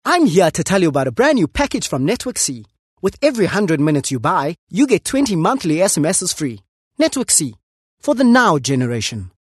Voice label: Hard Sell South African Neutral

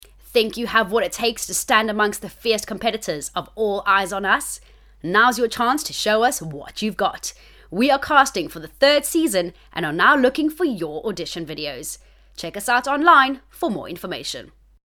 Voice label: Entertainment Promo

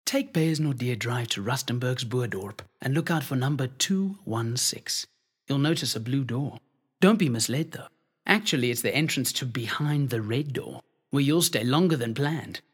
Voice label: VOICE OVERS; Behind the Red Door

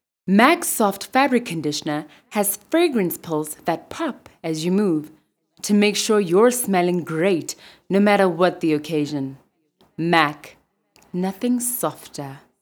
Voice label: Hard Sell

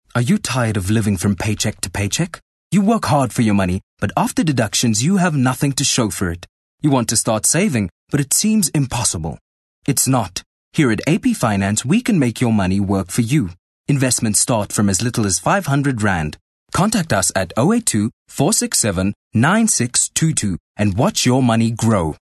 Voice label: Conversational South African Neutral

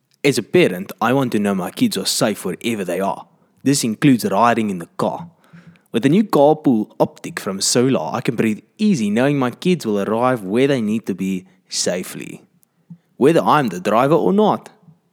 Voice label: Afrikaans Car Pool Voice Over

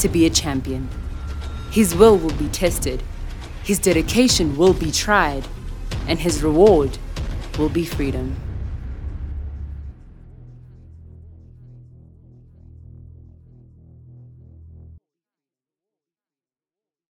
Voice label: Hard Sell with music

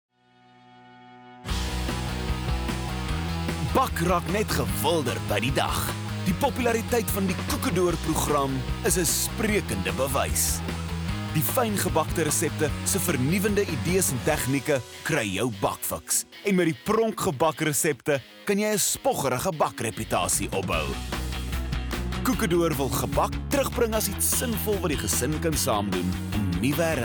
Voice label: Medium hard sell Afrikaans

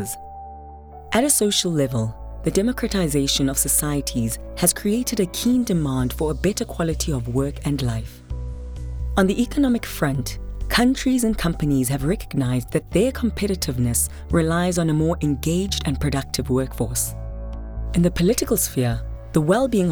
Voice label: Wellbeing Industry Corporate

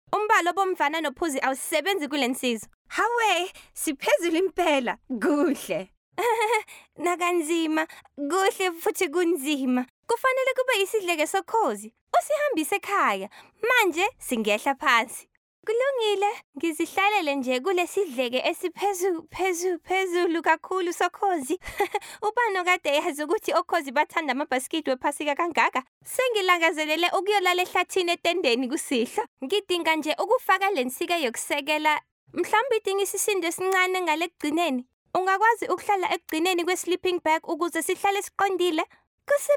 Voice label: character dub; PAW PATROL

